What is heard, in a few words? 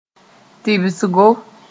"tɩbsgo", moore